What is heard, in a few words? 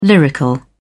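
"lyrical", English, British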